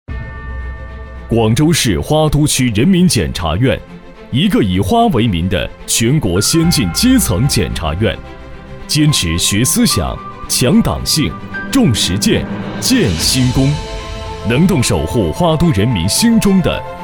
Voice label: 男 宣传片; 花都检察

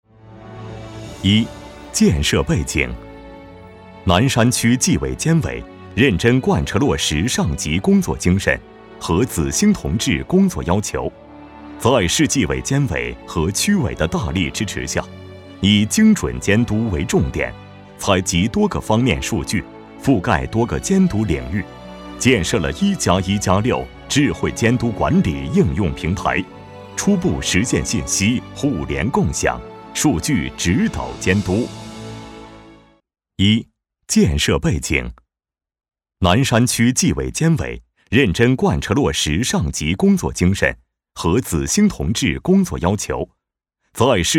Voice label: 男 党建; 南山监委

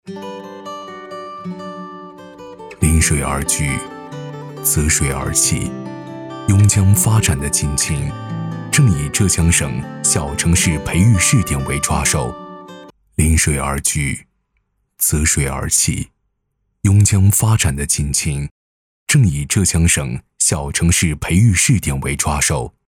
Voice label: 男 宣传片

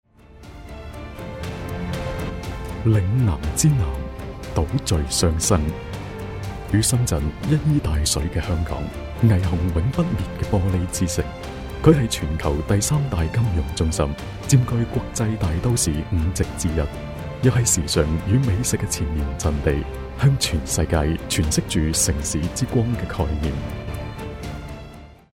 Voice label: 男【旁白】; 香港回归; 粤男

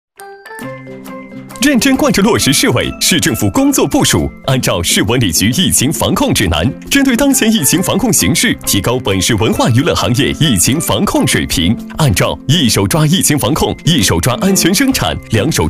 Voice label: 男 飞碟说; 上海市文化娱乐

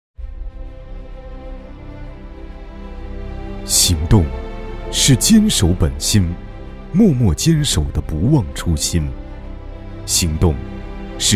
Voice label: 男 宣传片抒情

